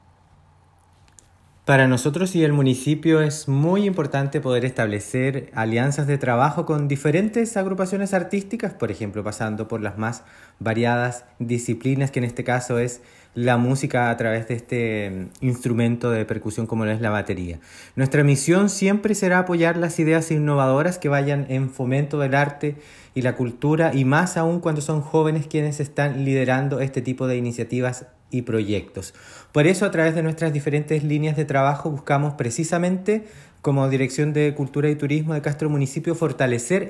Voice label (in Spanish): CUÑA; DRUMFEST